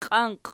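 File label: "qianqi" nominative, Archi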